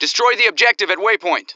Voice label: male; Starsiege; Tribes